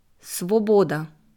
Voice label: "Swoboda", ukrainische Aussprache